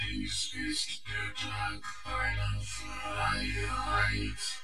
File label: A Vocoder Voice Encoder Analyzes Speech Transformers Electronic Transmitter Information